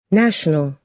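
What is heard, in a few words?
"national", American English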